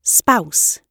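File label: "spouse", British English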